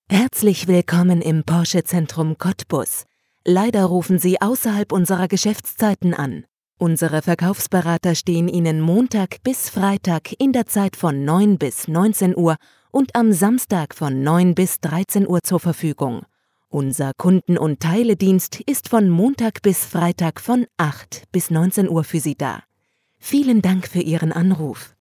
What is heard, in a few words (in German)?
telefon, deutsch frauen